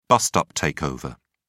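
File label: "bust-up takeover", British English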